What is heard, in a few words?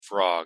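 "frog", English; pronunciation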